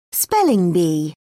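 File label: "spelling bee", English, British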